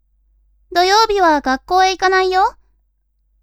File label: women